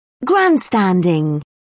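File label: "grandstanding", English, British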